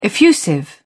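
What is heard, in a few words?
"effusive", British English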